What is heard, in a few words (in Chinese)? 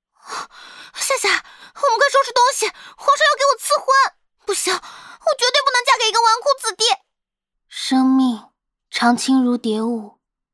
女 播 声展 全声线